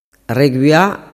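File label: "regua", Sicilian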